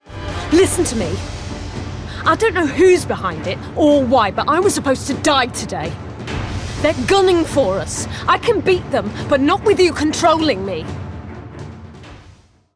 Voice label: Animation; Contolling Me